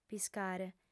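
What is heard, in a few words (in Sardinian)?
"piscare", female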